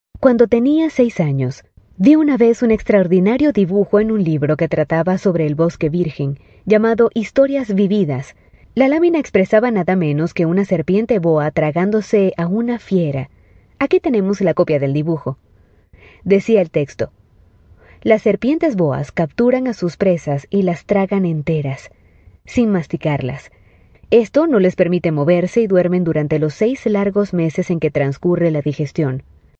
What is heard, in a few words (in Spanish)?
Spanish South America, F